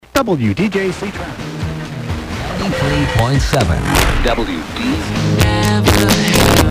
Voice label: ID!!! Lcl traffic report, FM DX; Es (1378 miles); WDJC 93.7 BIRMINGHAM AL 99 RELIG; Alabama